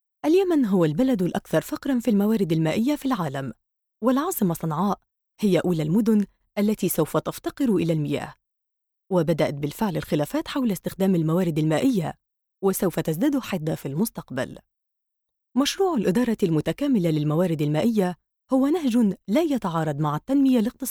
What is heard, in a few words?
Femal